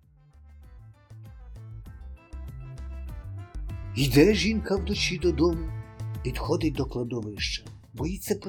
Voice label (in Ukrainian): веселий чоловік, Гумор